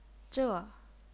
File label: "zhe4", chinese pinyin